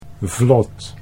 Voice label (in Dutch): "vlot", Dutch; 'raft'; think of: 'to float'